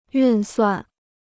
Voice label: "运算", Chinese